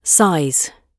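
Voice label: "size" British English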